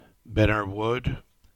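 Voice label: "Binner Wood", English; place-names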